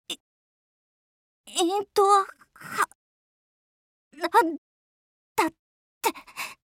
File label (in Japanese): death, jp; chasers; bellona